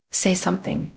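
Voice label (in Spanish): Voice Acting, es, female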